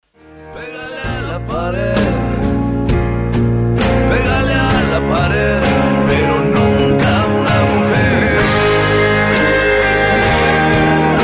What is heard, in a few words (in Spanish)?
spot feminicidio